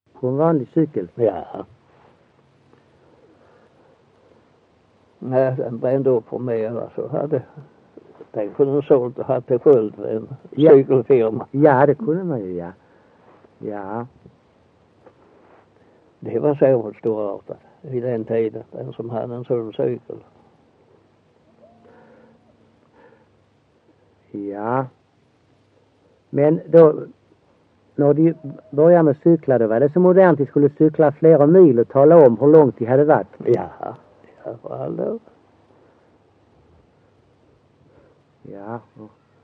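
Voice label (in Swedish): Össjö Skåne, dialekter; Folkminnen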